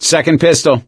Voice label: take pistol, survivor voice; source engine; left 4 dead 2; gambler